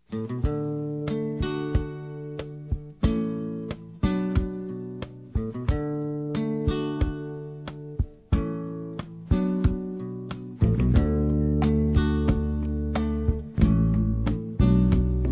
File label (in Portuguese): Aniv. de Comadre ou Compadre F